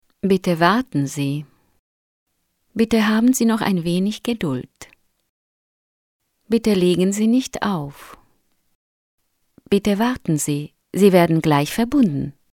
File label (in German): deutsch tschechisch, Telefonansagen, weiblich